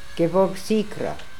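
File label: "Gevorgsikra", pronunciations; Fourth Way; Beelzebub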